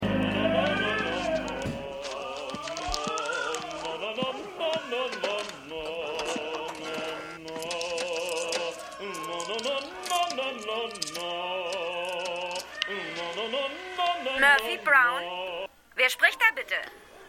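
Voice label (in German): Mann Gesangsübungen Sekretärin; Seinfeld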